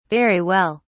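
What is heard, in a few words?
"very well", British English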